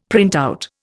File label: "printout", Indian; Dictionary